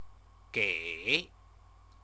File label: "gei", Chinese; CTCFL; University of Oxford